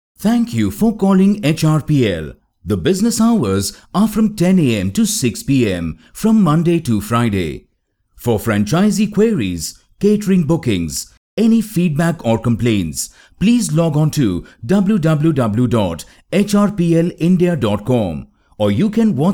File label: IVR; HRPL